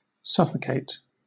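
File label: "suffocate", British English; pronunciations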